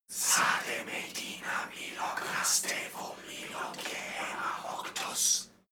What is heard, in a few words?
evoke whisper